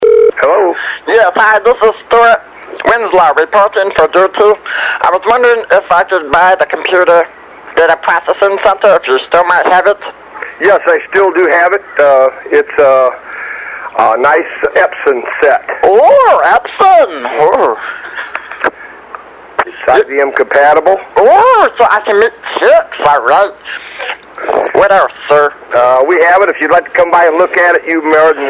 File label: telephone